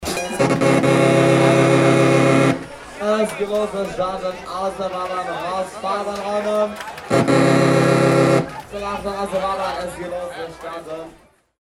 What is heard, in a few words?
octoberfest animateur